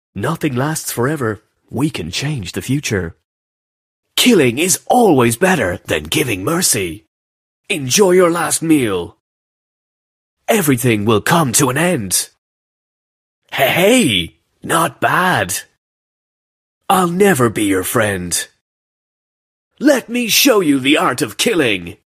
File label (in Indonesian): Ringtones Suara; Mobile Legends; Alucard